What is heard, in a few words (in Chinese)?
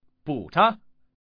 buzhang, changsha man; mahjongjinghai